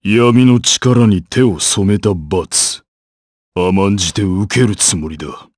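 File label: vox, voices, Japanese; kingsraid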